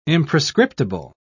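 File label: "imprescriptible", British English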